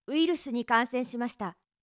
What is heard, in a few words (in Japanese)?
ウィルスに感染しました, ナレーション